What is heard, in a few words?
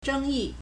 "zheng1yi4", Chinese